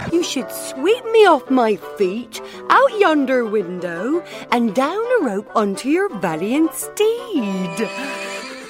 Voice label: west country